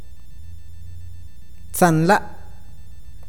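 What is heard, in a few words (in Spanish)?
río, awakateko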